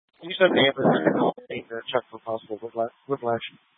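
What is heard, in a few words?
Incident Tactical 3, Scanner audio recording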